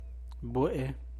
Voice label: "bue'e", San Mateo Macuilxochitl Zapotec; 1. moon, 2. month; 1. luna, 2. mes

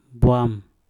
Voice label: "bwam", Mpur; kepala

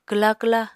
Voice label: "kwla kwla", Apatani

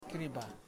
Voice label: "bark", Kamba

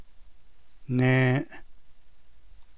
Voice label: navajo

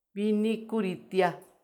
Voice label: "binni kuritia", wood pecker, macuiltianguis

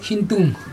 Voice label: "hintum", Chug, tooth

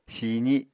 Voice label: "siizi", Apatani

